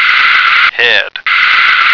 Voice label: "head", American